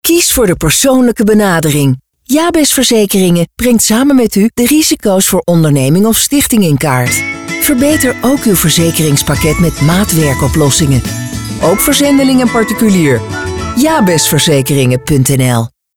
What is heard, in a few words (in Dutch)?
Commercial Verzekeringen Radio; Jabes; Groot Nieuws Radio